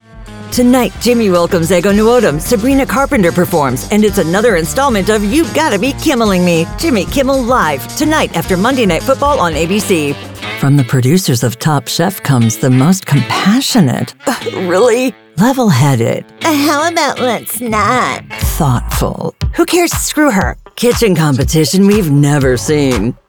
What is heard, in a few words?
TV Promo